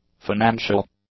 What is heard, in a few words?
"financial", English; adjectives